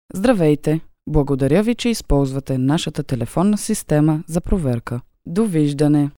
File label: Bulgarian